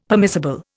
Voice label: "permissible", Indian; Dictionary